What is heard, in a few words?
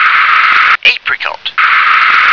"apricot", English